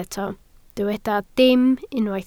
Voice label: "dim", Welsh

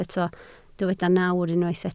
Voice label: "nawr", Welsh

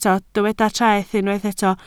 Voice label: "treth", Welsh

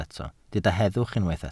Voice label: "heddwch", Welsh

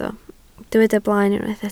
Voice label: "blaen", welsh

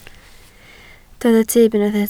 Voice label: "tyb", Welsh

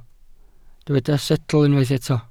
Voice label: "setl", Welsh

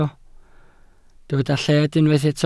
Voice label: "lled", welsh

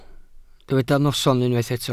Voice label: "noson", Welsh

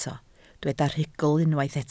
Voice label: "rhugl", Welsh

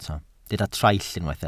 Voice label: "traill", Welsh

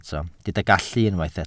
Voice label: "gallu", Welsh

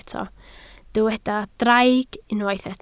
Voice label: "draig", Welsh

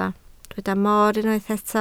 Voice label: "moor", Welsh

